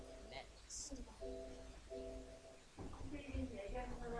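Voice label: "zip", spoken word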